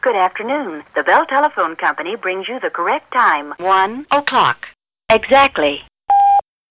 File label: audio USA; TIM 2015; self contained time of day announcement device